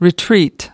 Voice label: "retreat", us

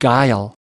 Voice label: "guile", us

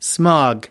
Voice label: "smog", us

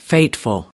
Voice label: "fateful", us

